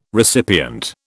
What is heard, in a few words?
"recipient", British